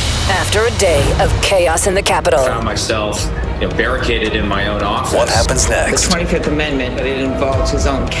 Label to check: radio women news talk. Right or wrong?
Right